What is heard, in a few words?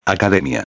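"academia", Spanish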